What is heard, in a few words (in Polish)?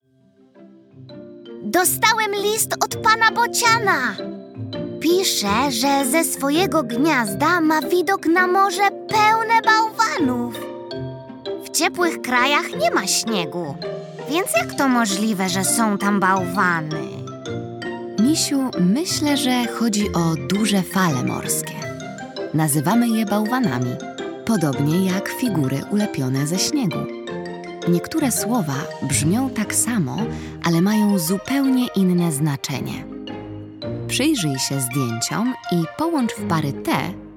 narracja; czasopismo mis; zima; rozmowa